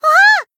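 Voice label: Voice damaged, Japanese; ciel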